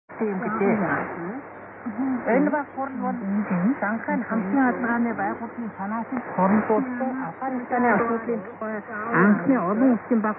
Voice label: 5915kHz Myanmar Radio

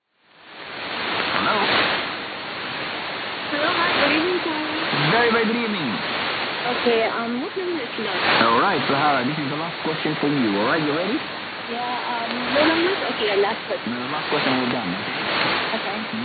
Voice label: 15140kHz Radio Sultanate of Oman

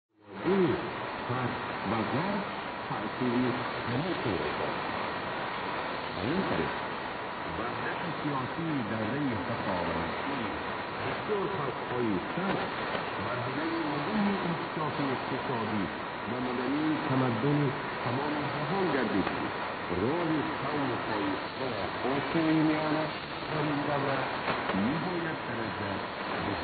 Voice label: 4765kHz Radio Tajikistan

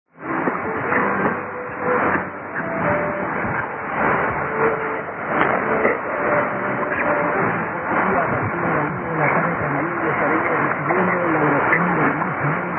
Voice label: 6019.3kHz; Radio Victoria; ペルー

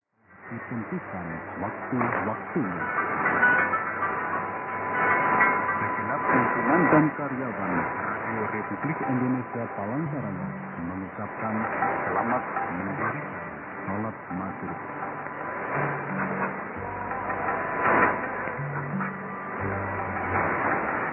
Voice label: 3325kHz; RRI-Palangkaraya

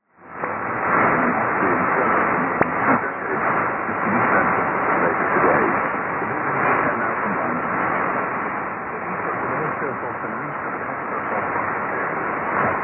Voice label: 6220kHz; RTE Radio 1 via Meyerton

